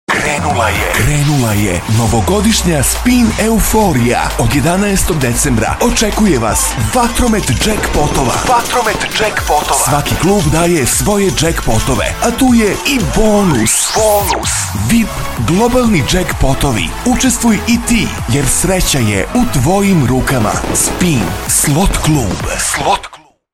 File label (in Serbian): reklama; spin slot klub novogodisnja euforija